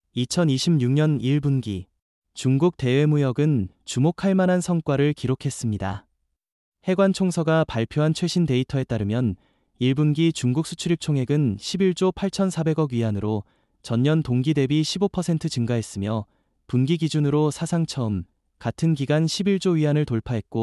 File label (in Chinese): 日语